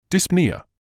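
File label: "dyspnoea", British English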